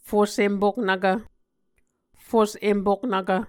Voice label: "fus-empoknvkv", muscogee; Mvskoke Words with Voicing Consonants; A Dictionary of Creek/Muskogee; SIL International